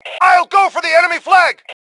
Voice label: Self Attack Flag, Male; T2 Male 05

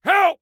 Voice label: Team Help, Soldier Male; Soldier Male 03

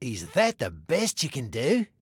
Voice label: Taunt Obnoxious, Male; Male 02

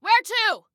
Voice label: Need Where To, Female

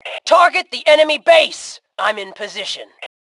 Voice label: Target Base, Female; T2 Female 04

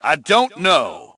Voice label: Dont Know, male; T2 Male 01

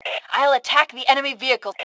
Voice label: Self Attack Vehicle, Female; T2 Female 03

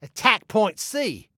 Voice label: ATTACK, Male

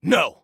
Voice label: No, Soldier Male

